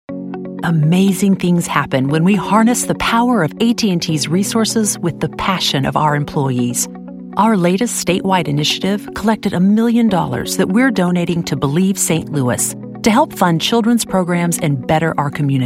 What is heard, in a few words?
Corporate Narration Voice Over